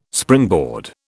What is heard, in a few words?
"springboard", British